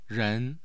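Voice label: "ren2", Mandarin Chinese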